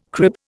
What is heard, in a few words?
"crib", Indian